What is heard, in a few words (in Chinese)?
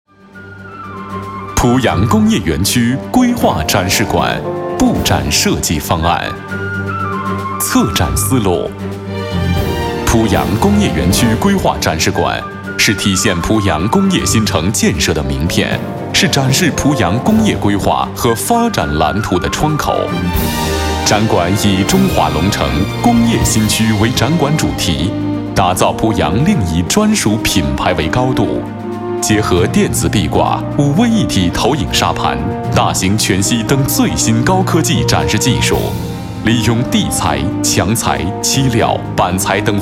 国语男 展馆介绍类; 濮阳展馆